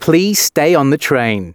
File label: announcements, male